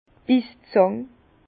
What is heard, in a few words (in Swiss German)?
"bisszang", Bas Rhin; vin; pince